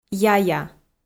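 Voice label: "яя", Komi-Zyrian, female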